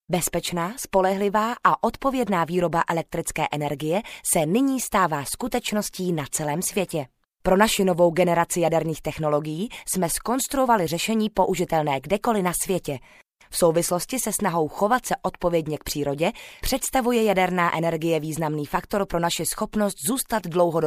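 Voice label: czech female narration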